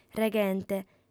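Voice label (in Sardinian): "reghente", female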